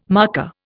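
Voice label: "marker", Indian English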